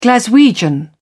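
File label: "glaswegian", British English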